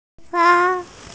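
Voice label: "frog", hearing impaired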